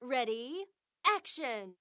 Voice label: camcorder start woman; Samsung SGH-i907 Epix